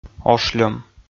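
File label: "ошльӧм", Komi, male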